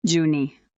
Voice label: fem